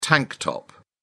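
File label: "tank top", British English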